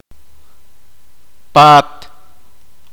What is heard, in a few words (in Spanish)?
"casa", Poqomchi